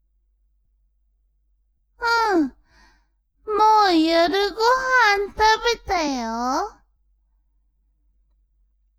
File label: woman